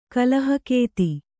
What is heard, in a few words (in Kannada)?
"ಕಲಹಗತಿ", Kannada